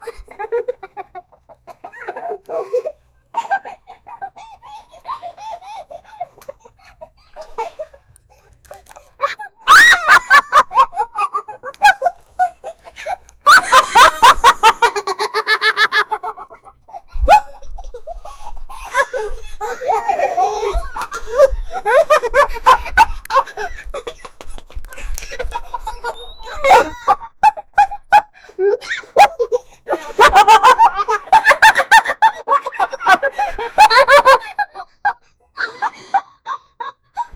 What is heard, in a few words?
a 27 year old father